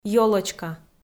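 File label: "ёлочка", Komi-Zyrian, female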